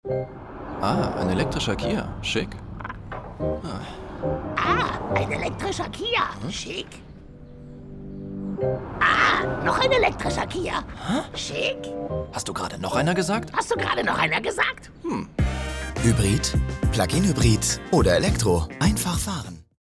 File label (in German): Werbung Synchron; Kia